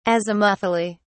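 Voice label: "azimuthally", British English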